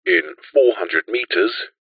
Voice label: technology sat nav voice male uk says in four hundred metres